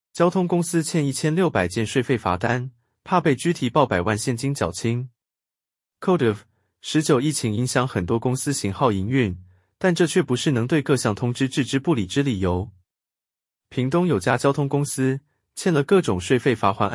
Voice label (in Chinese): ai語音-男; 交通公司欠1600件稅費罰單-怕被拘提抱百萬現金繳清